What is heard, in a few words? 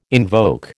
"invoke", American